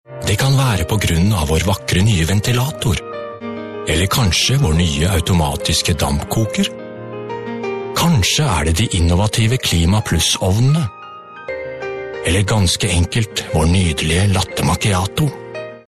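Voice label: norwegian male commercial, voice demos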